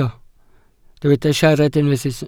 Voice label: "siarad", Welsh